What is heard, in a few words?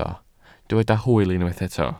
"hwyl", Welsh